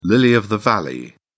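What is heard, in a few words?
"lily of the valley", British English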